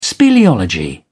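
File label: "speleology", English, British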